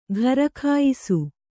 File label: "ಘರಖಾಯಿಸು", Kannada